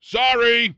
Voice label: punk sorry, vox; Dystopia; vox new